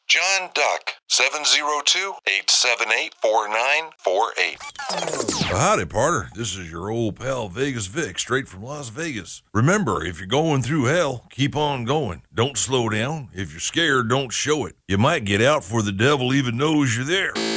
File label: character